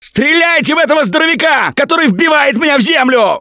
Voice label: grabbed by charger, russian, Survivor Voice; Gambler